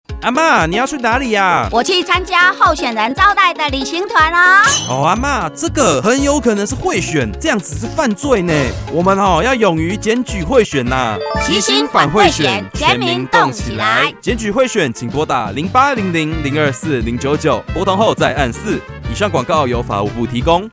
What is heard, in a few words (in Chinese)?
法務部反賄選廣播 國語